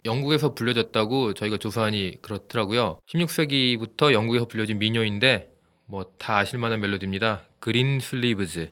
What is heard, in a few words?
Greensleeves Korean male speech